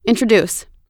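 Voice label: "introduce", English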